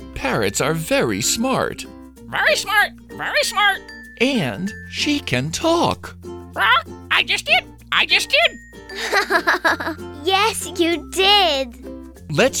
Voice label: Animal Documentary